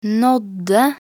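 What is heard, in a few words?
"nådde", Norwegian Bokmål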